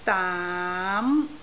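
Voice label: "daam2", Cantonese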